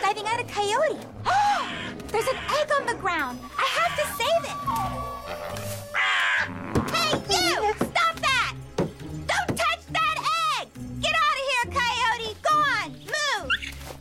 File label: Voice Over; YAKARI